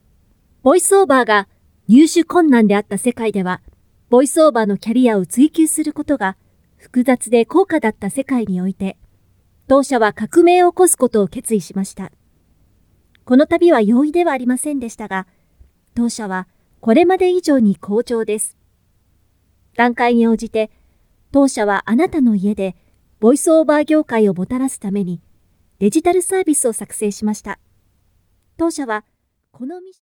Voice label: Japanese App Voice